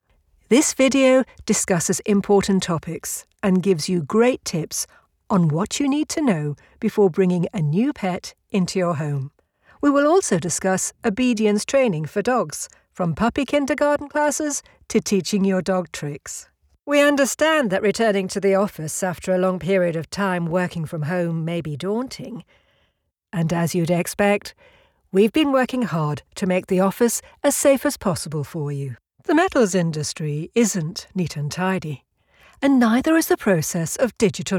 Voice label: Corporate

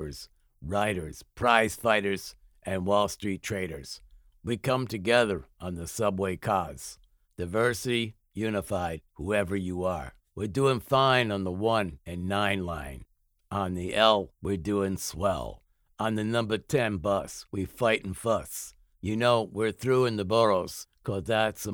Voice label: ATTITUDE NEW YORK ACCENT

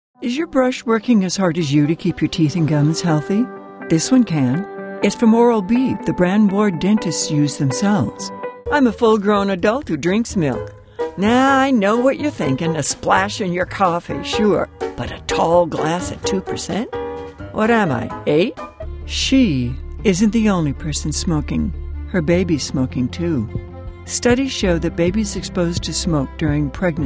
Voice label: Commercial VO

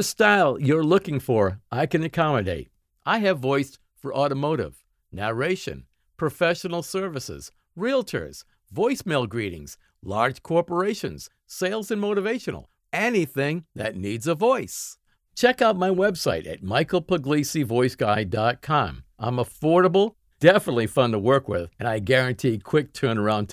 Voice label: INTRO GENERIC MESSAGE NO MUSIC

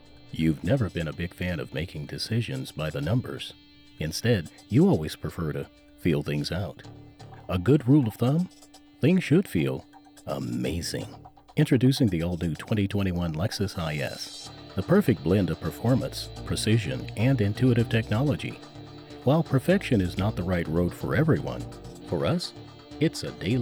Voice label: Commercials

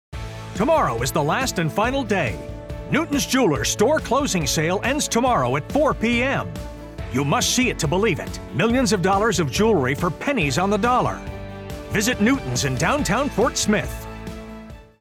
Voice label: High Energy Jewlery Spot

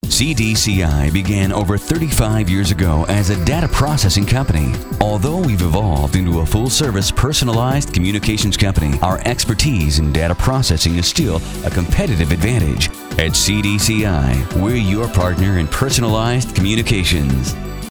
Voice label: On Hold

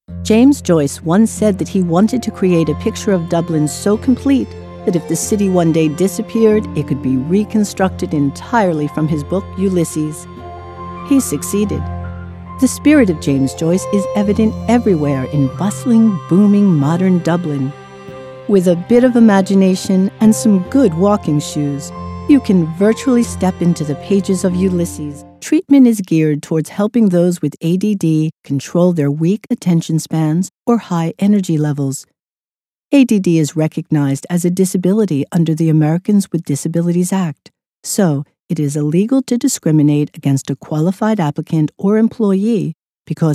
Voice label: narration

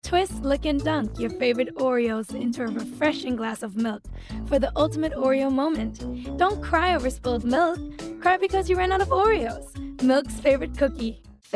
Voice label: commercials

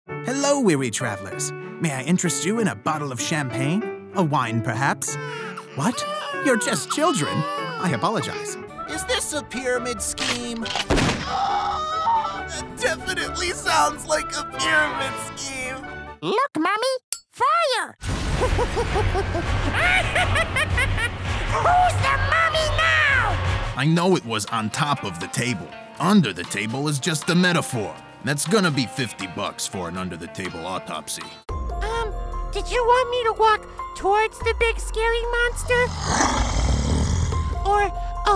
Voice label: Character

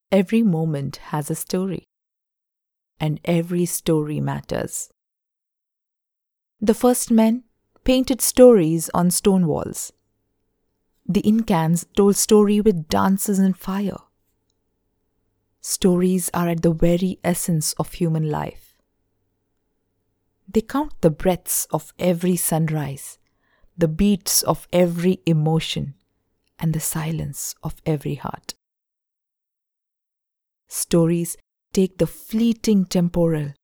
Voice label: Calm

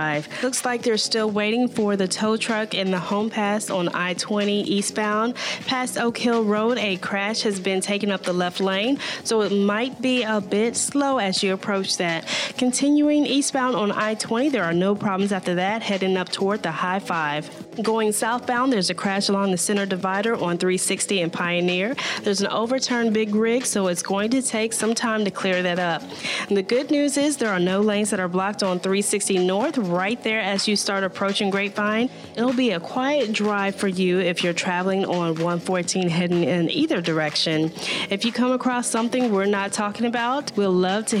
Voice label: Traffic Anchor

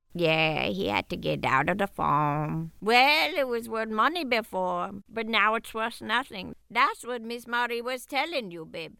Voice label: Cajun Springboard 73 year old woman

